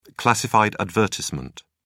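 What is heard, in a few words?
"classified advertisement", English, uk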